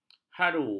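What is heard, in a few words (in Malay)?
"haru", Malay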